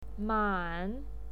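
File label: "man" third tone, pinyin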